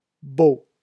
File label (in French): "beau" a